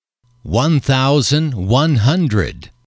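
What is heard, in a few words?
one thousand one hundred, English, male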